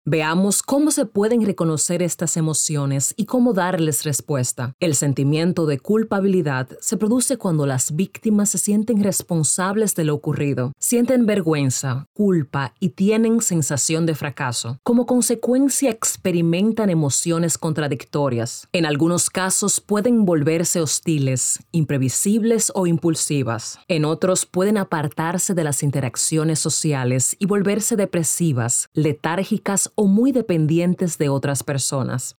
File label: female spanish dominican republic neutral spanish latam narration